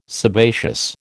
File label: "sebaceous", American